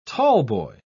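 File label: "tallboy", British English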